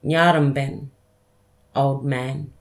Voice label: "nyarrəmbən" old man

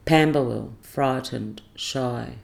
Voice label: "pamba-wil" frightened shy

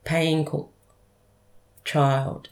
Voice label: "payingguk" child

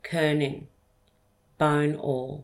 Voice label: "kerning" bone awl

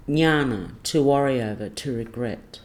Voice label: "nyarna" to worry over to regret

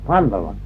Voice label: "pandelang" whistling kite

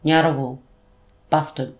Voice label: "ngarrawu" bustard

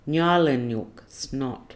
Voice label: "nyelinyuk" snot

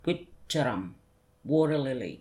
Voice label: "wityərrum" large water lily

